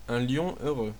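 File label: lion, French; tuxpaint-stamps; animals; mammals; cats; cartoon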